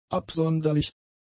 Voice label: "absonderlich", German; adjectives

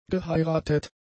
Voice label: "geheiratet", German; adjectives

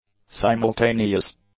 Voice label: "simultaneous", English; adjectives

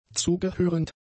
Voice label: "zugehörend", German; adjectives

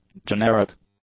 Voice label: "generic", English; adjectives

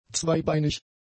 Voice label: "zweibeinig", German; adjectives

